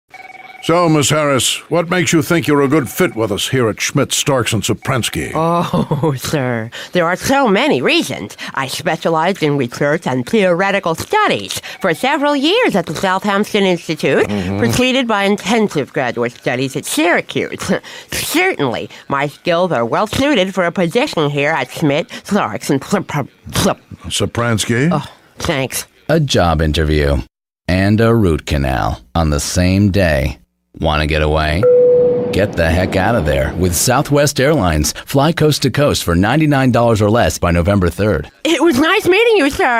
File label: Radio Commercial; Southwest Air; 'Job Interview'